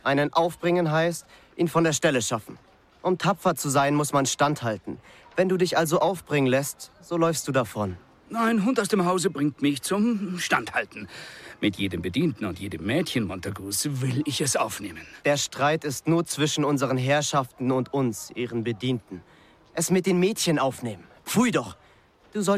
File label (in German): Hörspiel; Gregorio